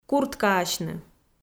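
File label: "курткаасьны", Komi, female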